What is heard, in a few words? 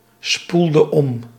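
"spoelde om", Dutch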